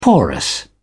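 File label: "porous", British English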